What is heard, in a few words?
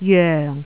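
"joeng4", Jyutping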